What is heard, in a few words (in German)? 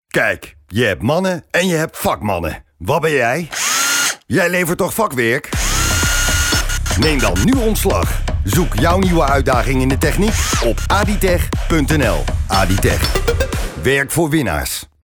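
Dutch Dynamisch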